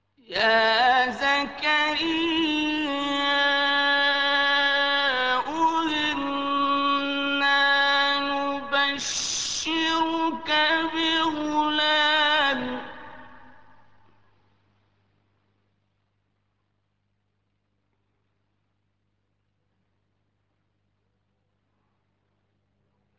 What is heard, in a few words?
warsh, Qiraat; Quran